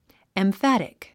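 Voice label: "emphatic", English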